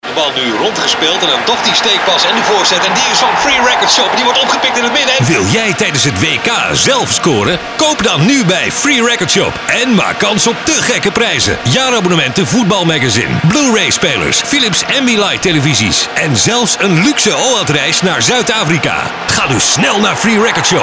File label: commercial; free record shop wk aktie nl